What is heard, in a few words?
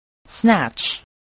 "snatch", English voice